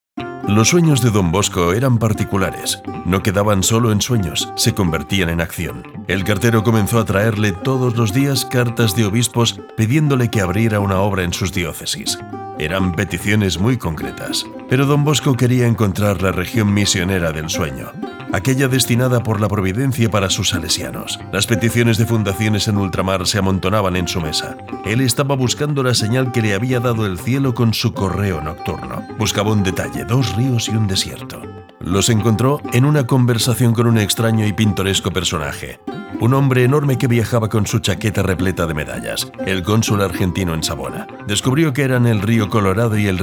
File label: Voice Spanish